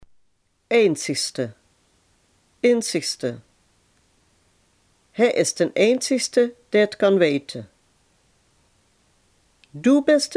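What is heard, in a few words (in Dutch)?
"enige" Limburgish dialect word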